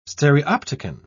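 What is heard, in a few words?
"stereopticon", British English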